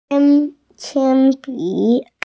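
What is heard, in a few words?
"pencil", Mandarin Chinese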